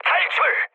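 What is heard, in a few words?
Korean bailout mood high, speech; War Thunder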